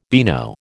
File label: "beano", American